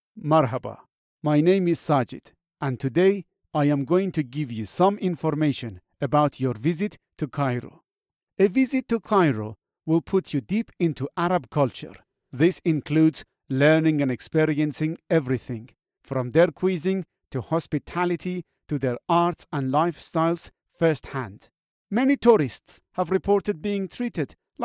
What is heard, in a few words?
English With Arabic Accent